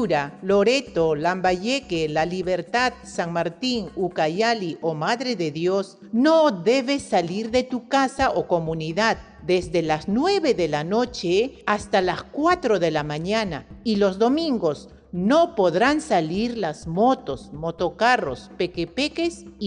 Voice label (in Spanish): castellano, nuevas medidas, spot de radio; pista amazonica; precauciones al salir de mi comunidad